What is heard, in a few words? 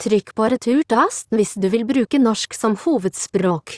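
Voice Over Instructions Norwegian Bokmål; Language Chooser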